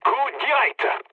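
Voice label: bomb success, mood low, French; War Thunder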